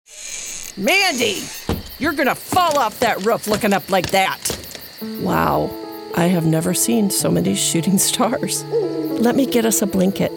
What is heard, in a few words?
Animation